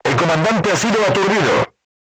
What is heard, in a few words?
voice message commander contused, crew tanks spanish